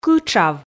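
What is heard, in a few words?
"кытшав", Komi, female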